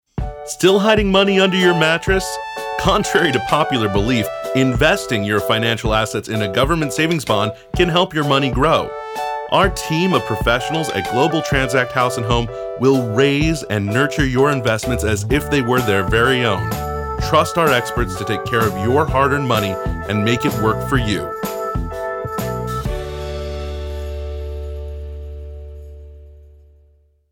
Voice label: Voiceover Investment Firm